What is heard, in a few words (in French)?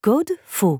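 Mauvais code, French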